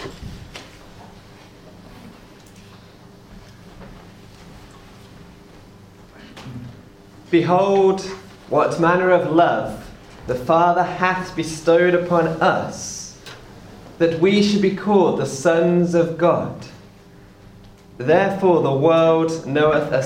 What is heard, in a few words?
Exhortation